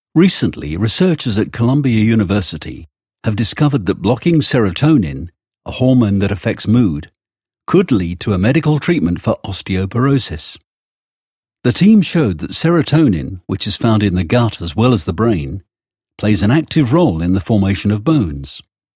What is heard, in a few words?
english british documentaries; Royaume-Uni; Anglais (britannique)